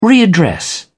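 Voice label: "readdress", British English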